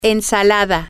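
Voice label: salad, Spanish, female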